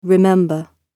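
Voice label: "remember", British English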